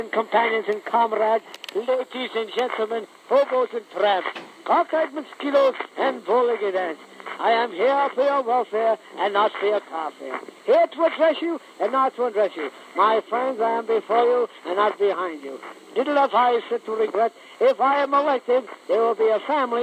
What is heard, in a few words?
Dad Speech